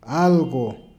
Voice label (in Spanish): "algo", Peru Cuzco